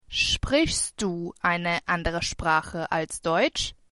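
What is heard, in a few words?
do you speak a language other than, german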